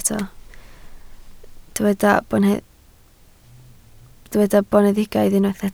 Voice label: "boneddigaidd", Welsh

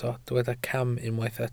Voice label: "cam", Welsh

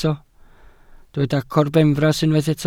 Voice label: "corbenfras" Welsh

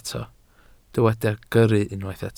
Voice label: "gyrru", Welsh

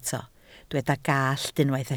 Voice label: "gallt", Welsh

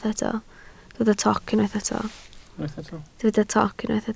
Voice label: "toc", Welsh

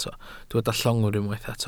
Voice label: "llongwr", Welsh

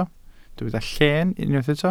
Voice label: "llen", Welsh

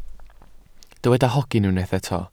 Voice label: "hogyn" Welsh

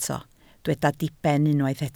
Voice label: "diben" Welsh

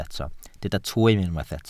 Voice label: "twym", Welsh

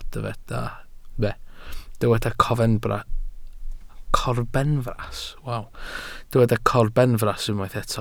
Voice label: "corbenfras" Welsh

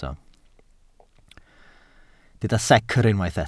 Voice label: "secr" Welsh

